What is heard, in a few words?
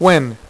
"win", Dealer's Speech; PowerPOKER Demo